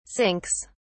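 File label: "sinks", British English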